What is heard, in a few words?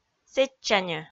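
"сэтчаньӧ", Komi, female; kpv audio dict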